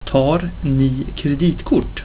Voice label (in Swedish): "tar ni kreditkort", swedish